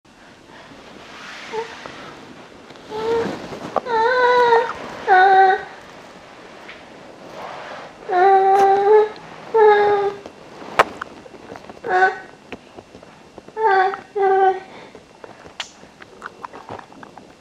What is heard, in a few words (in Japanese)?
えち音声サンプル音声